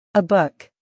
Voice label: "a book", English